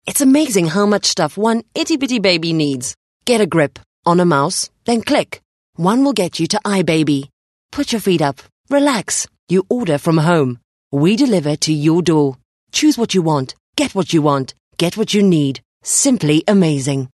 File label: English Bright